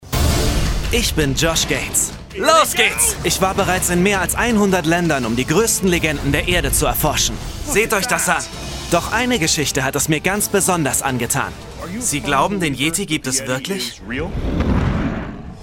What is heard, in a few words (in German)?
Energetisch